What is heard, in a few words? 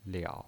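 "liao", pinyin, Mandarin Chinese, third tone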